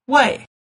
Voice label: "wei", Mandarin